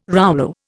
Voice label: "rouleau", Indian